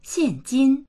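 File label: "xian4jin1", Mandarin Chinese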